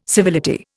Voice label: "civility", Indian

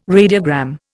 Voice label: "radiogram", Indian

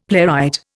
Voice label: "playwright", Indian; Dictionary

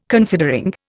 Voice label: "considering", Indian

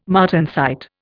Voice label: "martensite", Indian

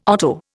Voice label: "otto", Indian

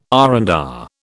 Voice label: "R and R", British

